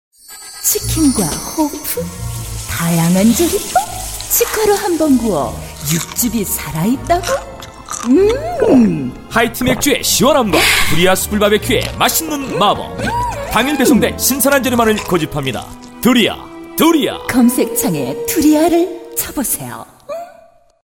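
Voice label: Korean, female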